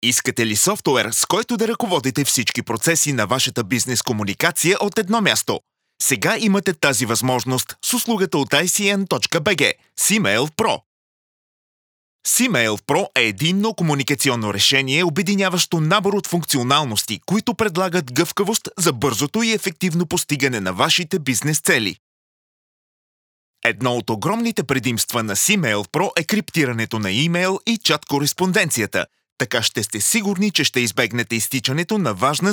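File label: bul male voice over e-learning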